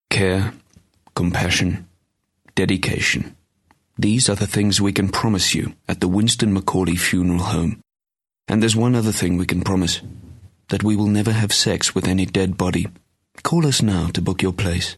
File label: English Soft Sell